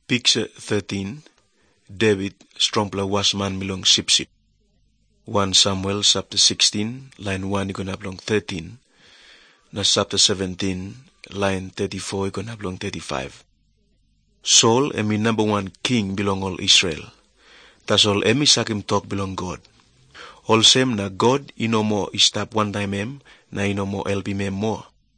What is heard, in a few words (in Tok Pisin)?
tok pisin, drama; png nt drama; servants of god